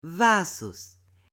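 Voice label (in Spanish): "vasos", Spanish, female; UTENSILIOS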